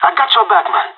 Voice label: covering friend, pirate; Counter Strike Model Pack; CS:GO